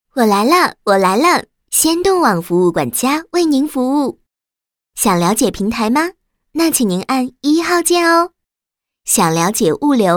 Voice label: 女 导航 语音导航

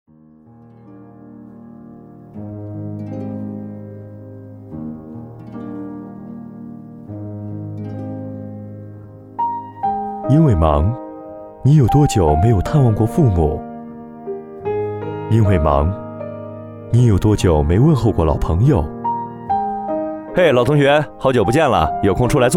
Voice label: 男, 温情电台台宣; 乐活广播

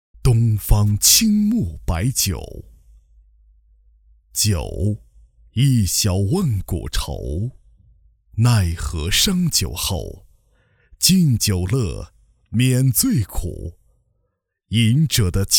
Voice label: 广告小样 酒

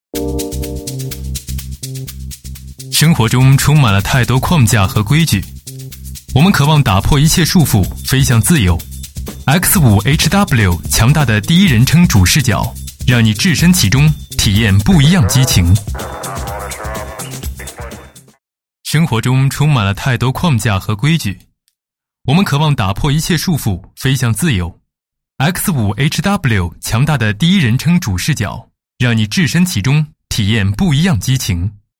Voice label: 男 宣传广告, 配音; 航拍x5hw宣传介绍

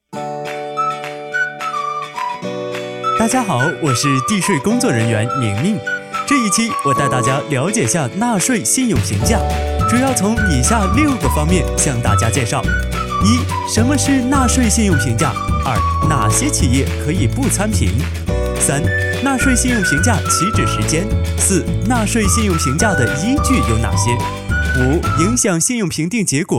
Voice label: 男 讲解宣传 纳税 轻快 柔和

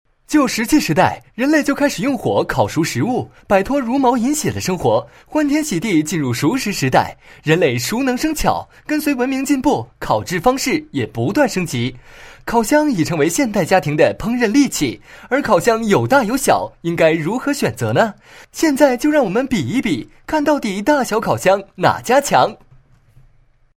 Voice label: 男, 品牌广告; 西门子大烤箱

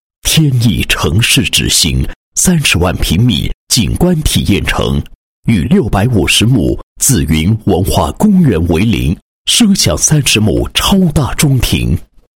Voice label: 男 房地产广告 宣传广告; 天亿城市之星

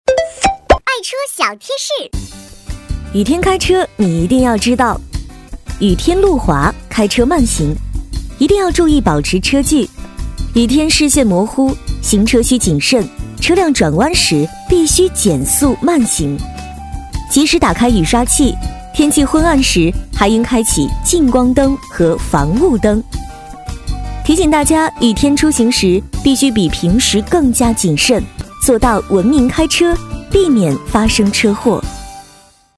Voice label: 专题 轻快电台贴片 爱车小贴士

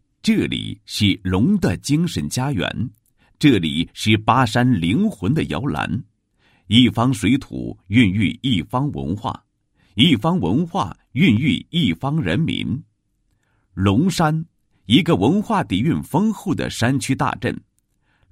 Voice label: 男 学校 初级中学校校园文化建设纪实, 配音案例

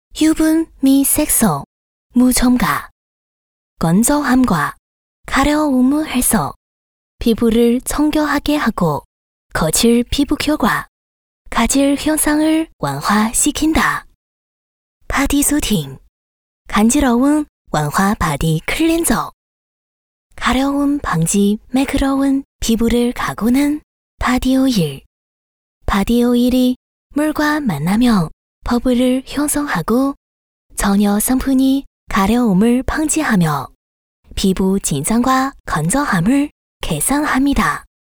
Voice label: 韩语

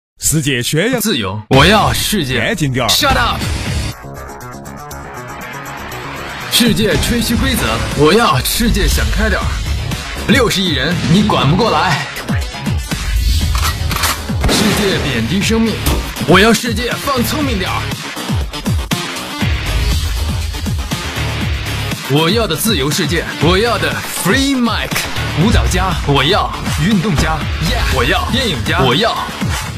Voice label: 男 广告 创意形象宣传片, 国语男声配音, 青春时尚; 锐力蜜中心

